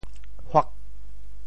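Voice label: "huak8", Teochew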